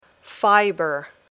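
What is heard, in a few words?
"fiber", English word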